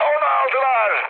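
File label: Turkish aircraft destroyed, mood high, speech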